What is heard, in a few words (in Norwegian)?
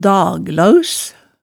"daglaaus", hemsedalsmaalet tale